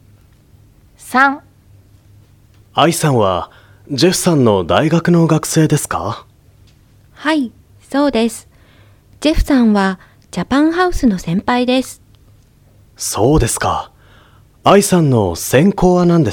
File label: Conversation; tobira